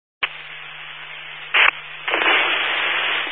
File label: Highway Patrol, Phoenix Metro West Area, scanner